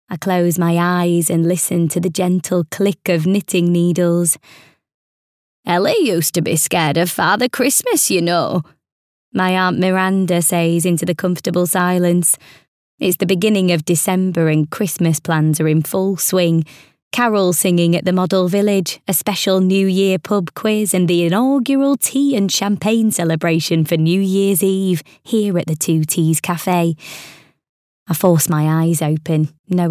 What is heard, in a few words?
audiobook, Animated Female Yorkshire Voice